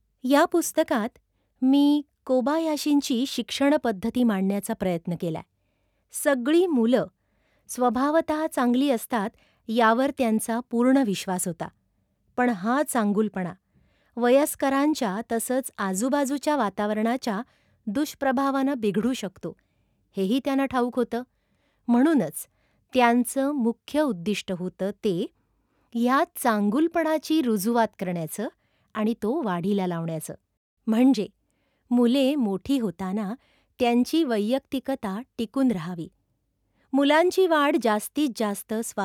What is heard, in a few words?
Marathi audiobook plain raw; Tottochan